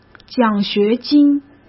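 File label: "奖学金", Mandarin Chinese; chinese-flashcards; hsk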